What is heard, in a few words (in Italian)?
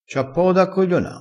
"cogliona", crespinese